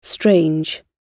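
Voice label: "strange", British English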